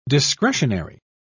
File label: "discretionary", pronounce